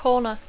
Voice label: "corner", English